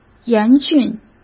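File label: "严峻", Mandarin Chinese; hsk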